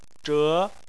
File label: "zhe2", Chinese